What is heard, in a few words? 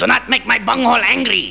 angry; BEAVIS AND BUTT-HEAD